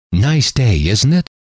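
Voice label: nice day isn't it, male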